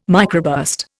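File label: "microburst", English, Indian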